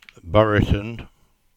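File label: "Buriton", English; place-names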